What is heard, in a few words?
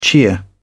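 "cheer", British English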